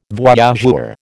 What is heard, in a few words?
"voyageur", American